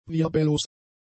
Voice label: "wirbellos", German; adjectives

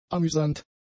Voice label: "amüsant", German; adjectives

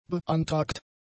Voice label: "beantragt", German; adjectives

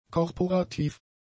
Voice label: "korporativ", German; adjectives

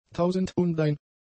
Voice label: "tausendundein", German; numerals

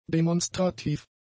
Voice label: "demonstrativ", german; adjectives

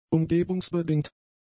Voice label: "umgebungsbedingt", German; adjectives

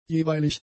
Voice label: "jeweilig", german; adjectives

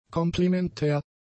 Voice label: "komplementär", German; adjectives

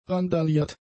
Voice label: "randaliert", German; adjectives

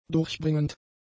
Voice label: "durchbringend", German; adjectives

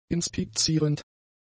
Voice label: "inspizierend", German; adjectives

